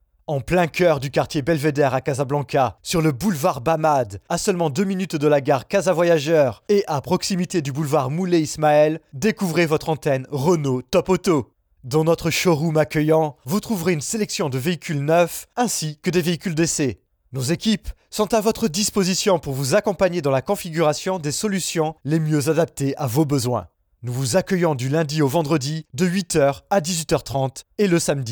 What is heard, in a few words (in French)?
publicite; Renault